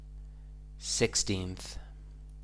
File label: "sixteenth", English